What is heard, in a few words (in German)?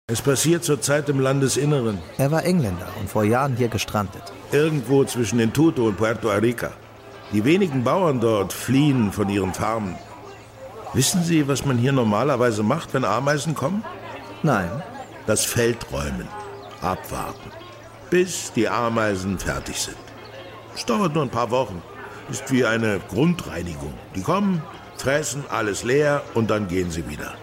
Hoerspiel; Die Ameisen; LOFT VOICES